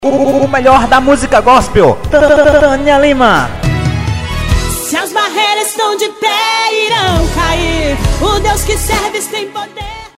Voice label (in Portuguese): comercial